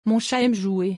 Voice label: "mon chat aime jouer", French; pronunciation; forbidden liaisons